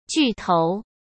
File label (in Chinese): "巨头", Chinese; hsk